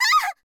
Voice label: swordwoman damage, voice